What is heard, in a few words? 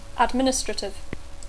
"administrative", English